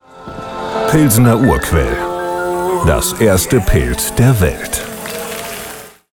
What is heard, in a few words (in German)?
werbung claim; pilsner urquell